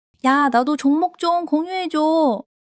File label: excitement